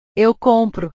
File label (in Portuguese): "eu compro", portugues; comprar; portugues/verbos